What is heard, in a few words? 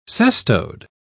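"cestode", British English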